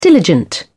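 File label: "diligent", British English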